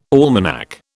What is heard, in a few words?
"almanac", British